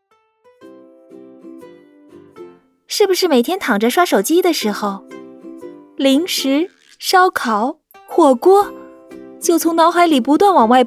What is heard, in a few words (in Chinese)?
减肥食品广告片